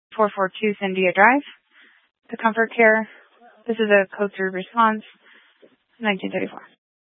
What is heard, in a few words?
Grand Junction Fire Department Dispatch